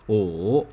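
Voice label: "Wu", five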